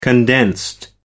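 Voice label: "condensed", British English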